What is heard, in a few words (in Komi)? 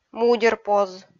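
"мудерпоз", Komi, female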